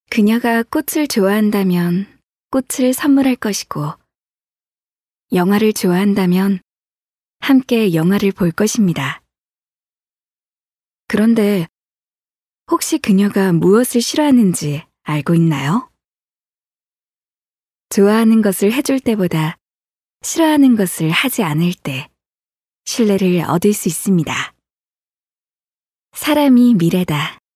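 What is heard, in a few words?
Korean, female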